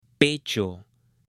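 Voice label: "pecho", Spanish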